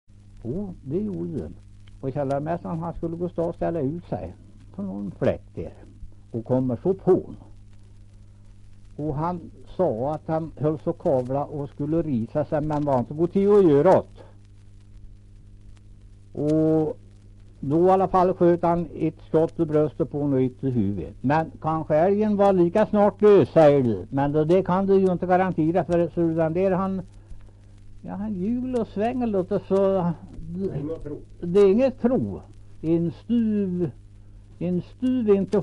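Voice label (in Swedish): Kräklinge Närke, dialekter, svenska sagor; Folkminnen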